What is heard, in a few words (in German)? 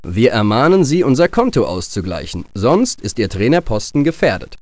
Wir ermahnen Sie Konto auszugleichen, chairman; GERMAN; swos-soundset